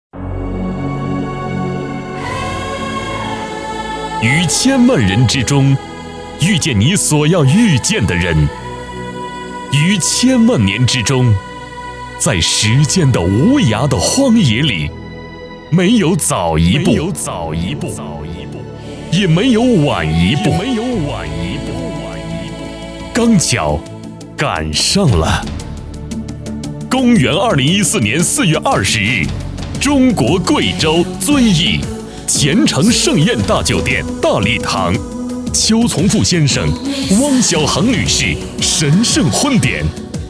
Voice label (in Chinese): 男 现场 婚礼现场